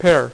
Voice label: "PAIR", Dealer's Speech